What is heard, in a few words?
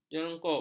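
"jerungkup", Malay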